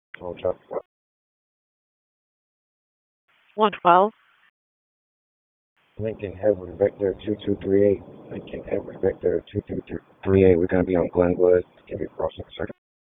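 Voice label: Raleigh Police Southwest District Dispatch, p25; wake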